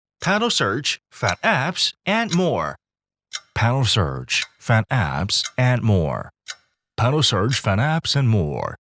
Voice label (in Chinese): 英语男; 花瓣搜索